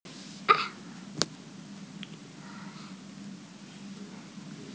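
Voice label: "nv3", Mandarin Chinese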